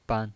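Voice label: "ban", Mandarin Chinese, neutral tone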